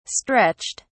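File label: "stretched", English, British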